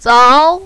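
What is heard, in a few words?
"zao" second tone, mandarin, female; 凿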